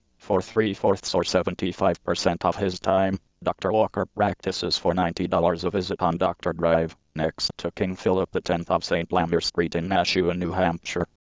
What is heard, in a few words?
freetts, speech